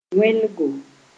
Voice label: "wẽlgo", Moore

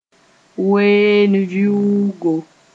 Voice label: "ween-vɩʋʋgo", moore

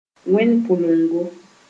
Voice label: "wẽndpʋlengo", Moore

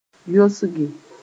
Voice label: "yosge", moore

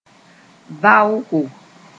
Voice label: "vaoko", moore

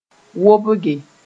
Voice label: "wobge", moore

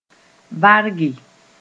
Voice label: "varge", moore

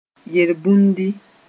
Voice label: "yelbũndi", moore

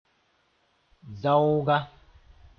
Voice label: "zaooga", moore

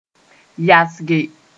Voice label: "yasge", moore

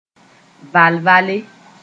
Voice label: "val-vale", moore

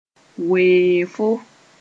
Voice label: "weefo", moore

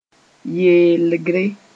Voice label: "yeelgre", moore

